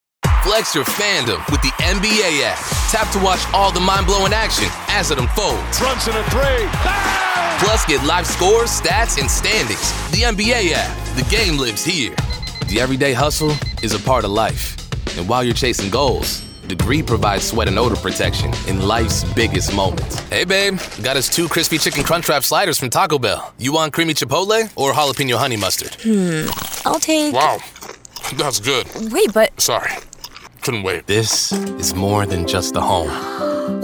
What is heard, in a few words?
Commercial, men